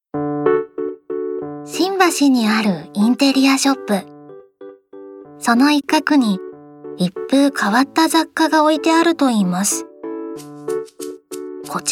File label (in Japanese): ナレーション